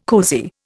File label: "cosy", Indian